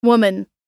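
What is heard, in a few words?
"woman", English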